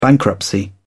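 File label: "bankruptcy", British English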